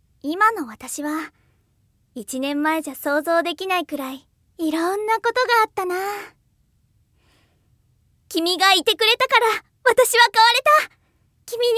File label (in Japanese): 少女(明るい)